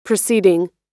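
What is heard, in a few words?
"preceding", American English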